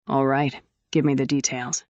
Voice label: fem